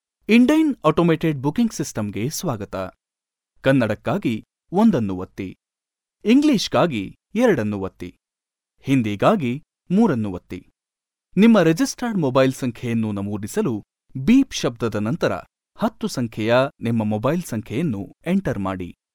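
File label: Kannada India male voice over, upbeat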